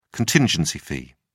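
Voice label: "contingency fee", British English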